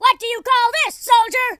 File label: grenade, Drill Sergeant; speech